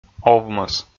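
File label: "овмӧс", Komi, male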